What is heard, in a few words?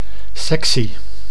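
"sectie", Dutch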